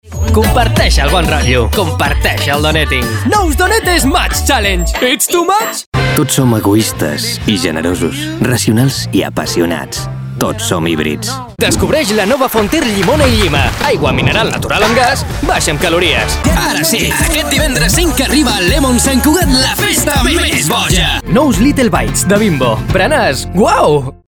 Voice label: Catalan commercial; Espagne; un artiste voix-off bilingue en espagnol et en catalan, deux langues maternelles